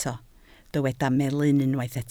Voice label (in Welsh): "melyn" Welsh